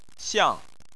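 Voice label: "xiang", Chinese, fourth tone; Chinese Surnames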